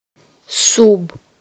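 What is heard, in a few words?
under, Romanian; PictureDictionary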